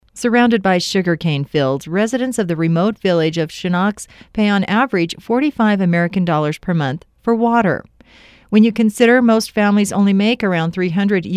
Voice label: narration; belize

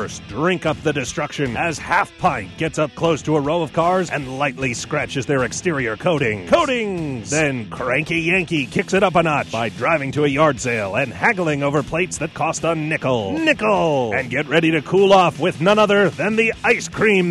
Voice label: truck commercial

